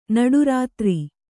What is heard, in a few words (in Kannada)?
"ನಡುರಾತ್ರೆ", Kannada